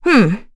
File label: Vox Deny, kr; Chrisha; kingsraid